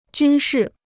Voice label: "军事", Chinese

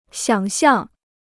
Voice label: "想象", Chinese

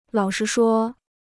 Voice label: "老实说", Chinese

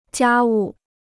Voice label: "家务", Chinese

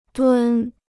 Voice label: "蹲", Chinese

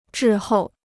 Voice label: "滞后", Chinese

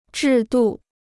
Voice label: "制度", Chinese

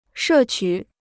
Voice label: "摄取", Chinese

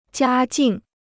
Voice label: "家境", Chinese

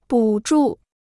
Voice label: "补助", Chinese

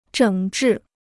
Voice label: "整治", Chinese

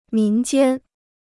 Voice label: "民间", Chinese

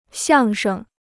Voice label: "相声", Chinese

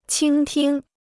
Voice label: "倾听", Chinese

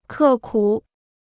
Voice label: "刻苦", Chinese

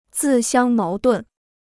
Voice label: "自相矛盾", Chinese

